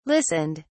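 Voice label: "listened", British English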